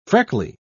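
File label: "freckly", British English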